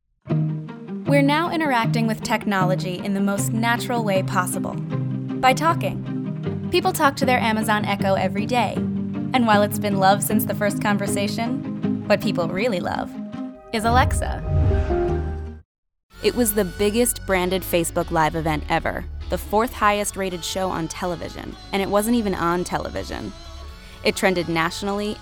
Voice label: Business Narration

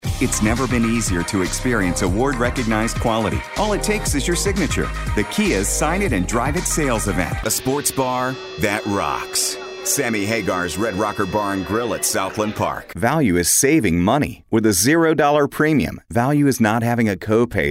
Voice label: Commercials

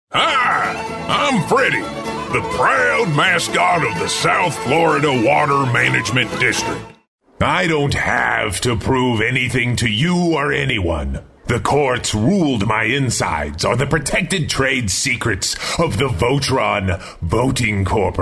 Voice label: animation multiple

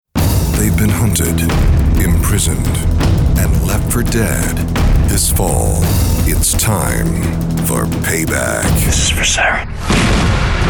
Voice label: tv promo

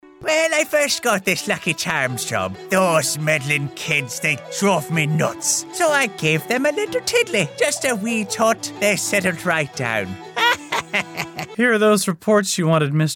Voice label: Character

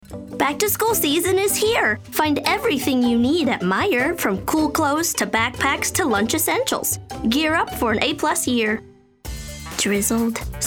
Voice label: Commercial Demo

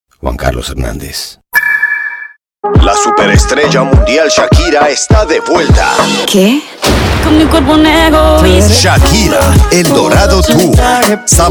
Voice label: SPANISH COMMERCIAL PROMO

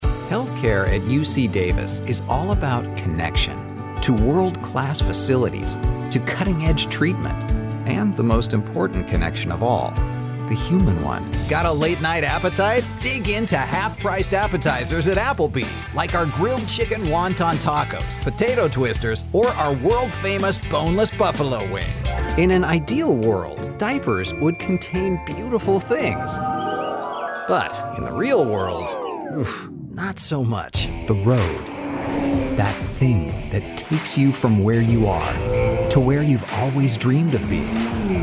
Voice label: Commercial Demo

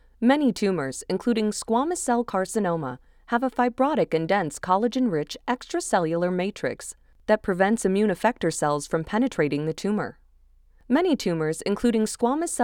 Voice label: Animation Sample

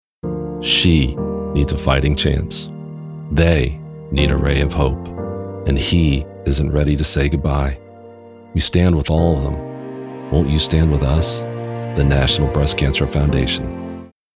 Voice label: COMMERCIAL, BREAST CANCER FNDTION; ZAM NATL BREAST CANCER FNDTION

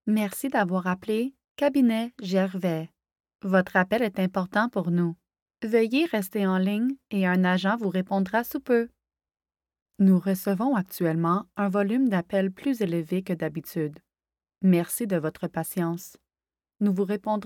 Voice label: FR Phone Operator

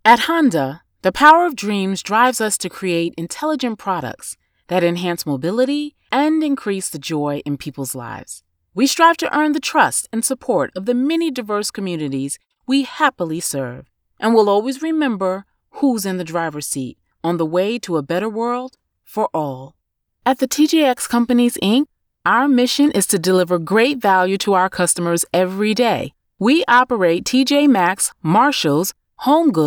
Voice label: Voice Over

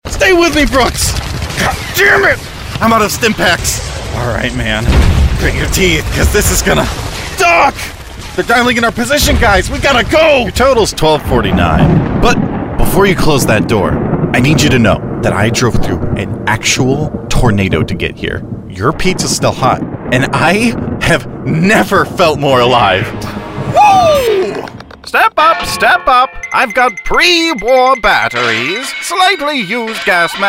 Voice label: Character

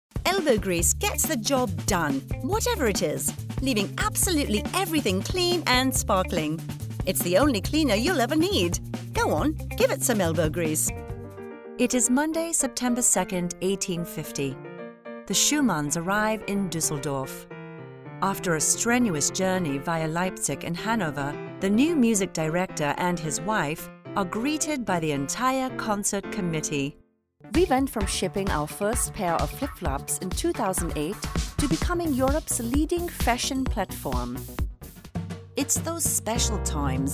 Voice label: Accents

